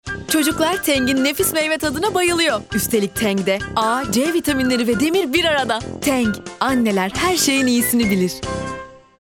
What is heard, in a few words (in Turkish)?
neseli tempolu; seslendirme